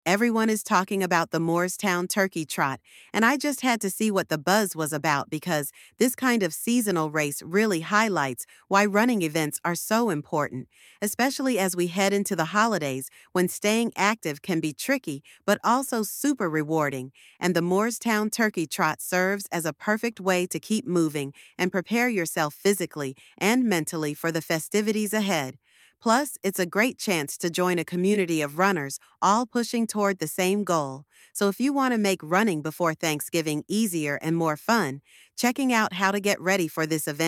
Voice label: voiceover; Moorestown Turkey Trot Preview; Thunderbolt Multi Sports; Highlight the upcoming Moorestown Turkey Trot race event, perfect for runners wanting to s; Kaylin AI